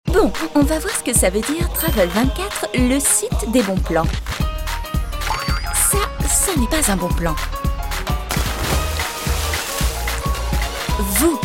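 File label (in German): tv spot französisch; travel24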